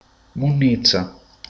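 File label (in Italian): "immondizia", Sicilian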